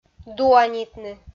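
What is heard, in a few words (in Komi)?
"дуанитны", Komi, female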